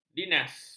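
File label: "dinas", Malay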